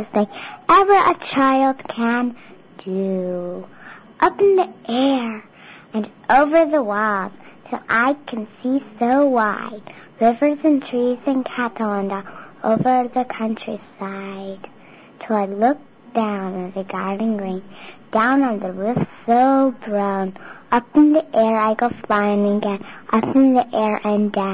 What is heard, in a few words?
Swing Poem